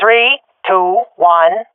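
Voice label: countdown, swat female; vo; Counter Strike Model Pack; CS:GO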